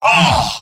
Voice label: negative vocalization, scout; tf2; garrysmod; mvm